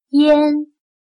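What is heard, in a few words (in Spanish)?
"淹", chino mandarin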